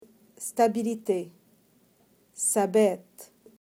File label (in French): stabilite libanais